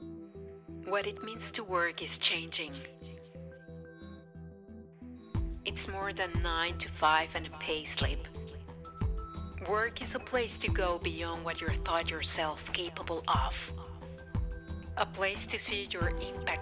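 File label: Spanish Latin American, English accent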